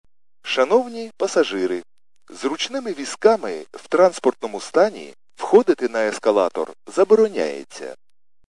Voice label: khs st male, subway station